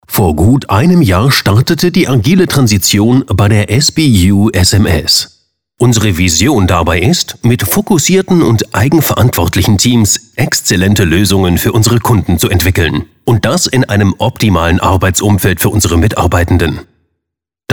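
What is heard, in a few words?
male, German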